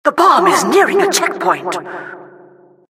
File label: announcer cart defender warning